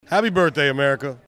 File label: liner happy birthday america; darius